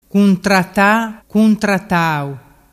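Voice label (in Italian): "cuntrattatau", Vernazzese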